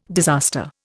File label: "disaster", Indian